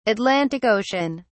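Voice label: "Atlantic Ocean", British English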